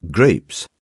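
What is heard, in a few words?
"grapes", British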